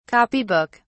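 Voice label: "copy book", British English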